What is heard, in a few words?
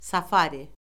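"safari", Azerbaijani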